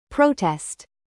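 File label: "bass", noun, British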